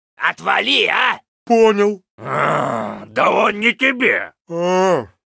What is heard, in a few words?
Pissed; LostVikingsBase